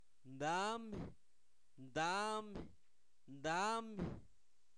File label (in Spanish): Zapoteco, santo domingo albarradas; tecolote